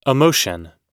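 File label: "emotion", English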